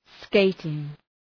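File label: "skating", English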